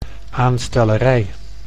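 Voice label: "aanstellerij", Dutch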